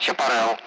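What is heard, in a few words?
Speech Sound Russian Common Allied Flight; AirDefence; Chaparral; DCS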